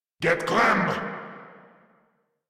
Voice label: Player Spotted, Grineer Male